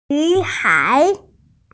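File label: "nv3hai2", Mandarin Chinese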